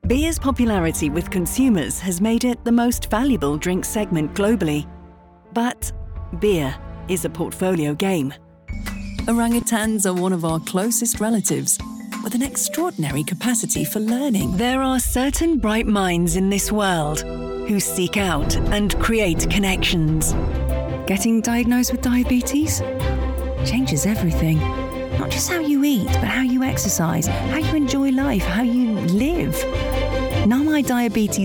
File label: Corporate